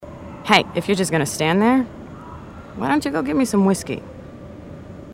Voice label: female marines